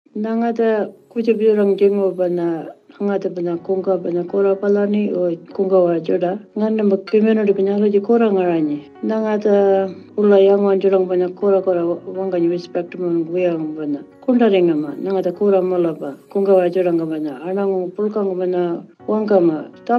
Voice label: Pitjantjatjara radio